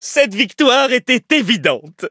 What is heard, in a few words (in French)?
victory, fr; Cookie Run